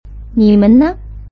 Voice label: "nimenne", Chinese